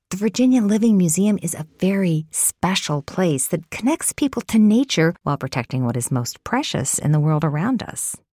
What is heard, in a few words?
Museum Guide